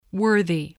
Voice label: "worthy", voice, english